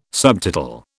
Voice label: "subtotal", British